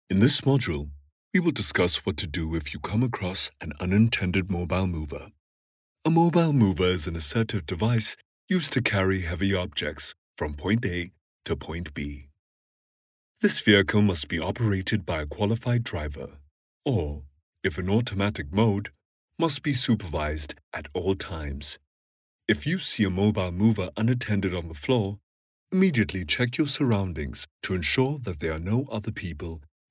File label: English South African, Male